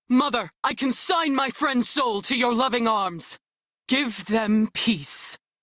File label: Crew Died, Female; Dialog; en; Warframe; Railjack Crew; New Loka